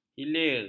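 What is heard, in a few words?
"hilir", Malay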